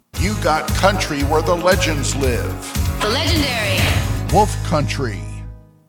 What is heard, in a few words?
radio ID; Wolf Country